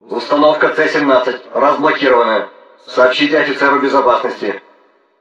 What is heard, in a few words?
system message; STALZONE; customnpcs; stalker